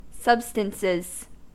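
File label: "substances", US English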